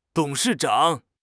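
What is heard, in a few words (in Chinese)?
"董事长", Chinese; HSK6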